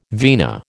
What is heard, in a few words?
"vina", American